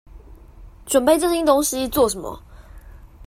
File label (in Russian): Тайваньский; commonvoice